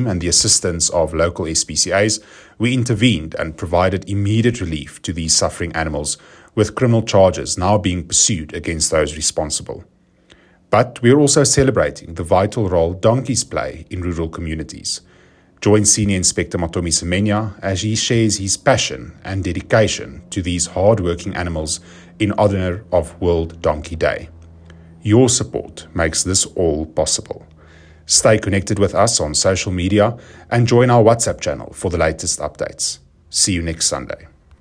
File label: Voice Over; Week in Review: 5-11 May 2025; It's Their Planet Too